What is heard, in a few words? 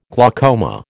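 "glaucoma", American English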